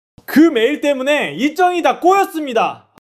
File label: anger